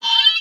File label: Wizard Mini Staff Slam